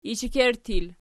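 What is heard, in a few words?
"ishekerttur" plural, Archi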